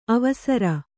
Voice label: "ಅವಸರ", Kannada

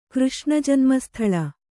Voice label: "ಕೃಷ್ಣಜನ್ಮಸ್ಥಳ", kannada

